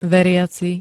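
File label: "veriaci", Slovak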